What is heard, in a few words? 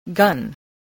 "gun", English